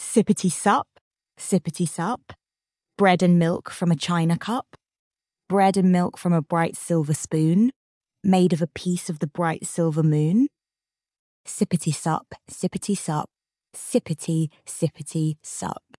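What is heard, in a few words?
female British; Sippity Sippity Sup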